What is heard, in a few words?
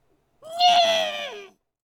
Voices Female